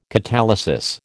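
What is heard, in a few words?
"catalysis", American English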